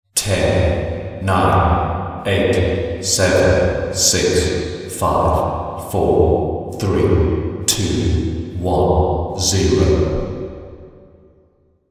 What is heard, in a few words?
10 To Zero Male Countdown Resonating Voice; Talking Sound Effects; Human Voice Sound Effect